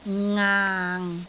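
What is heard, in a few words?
"ngaang6", Cantonese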